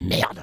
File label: negative vocalization, fr; Merde.; Sniper